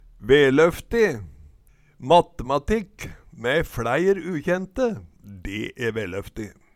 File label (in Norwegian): "velofti", Numedalsmål; Matematikk me fLæir ukjente, de e veløfti